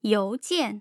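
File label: "youjian", Mandarin Chinese, tone pairs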